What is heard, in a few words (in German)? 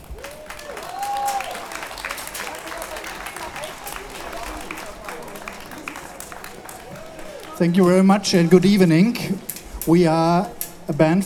Ansage